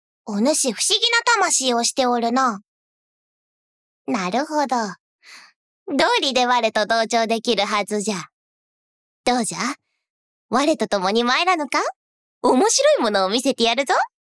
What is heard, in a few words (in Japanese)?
ロリ（年齢不詳）